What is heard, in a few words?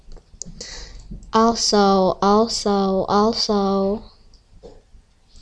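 "also", spoken word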